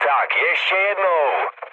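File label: bomb failure, Czech, mood med; War Thunder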